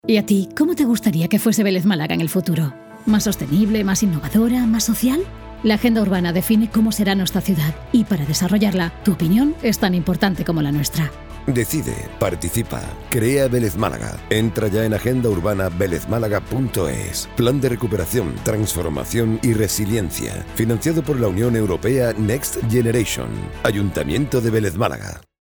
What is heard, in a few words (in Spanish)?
anuncio radio